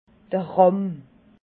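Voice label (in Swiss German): "rahm", Alsatian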